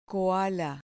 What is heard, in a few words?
"koala", Spanish